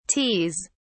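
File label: "teas", British English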